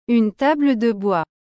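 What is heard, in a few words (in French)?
Une table de bois, French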